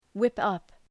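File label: "whip up", English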